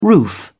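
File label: "roof", English, British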